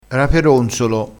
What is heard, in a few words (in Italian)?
"raperonzolo", crespinese, vocabolario dialettale